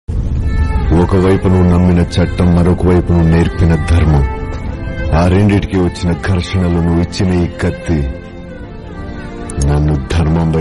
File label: Emotional Heart Touching Telugu Dialogue